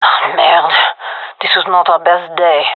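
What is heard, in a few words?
round lost, gendarmerie female; vo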